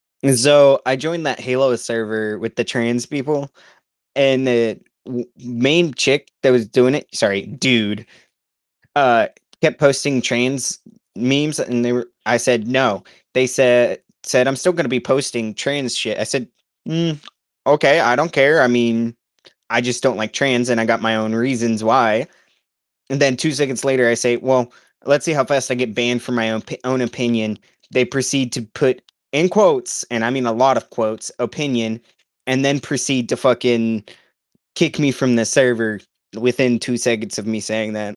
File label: voice message; Discord; Outcast Gaming